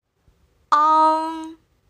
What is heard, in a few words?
"ang", Pinyin final